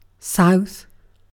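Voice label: "south", British English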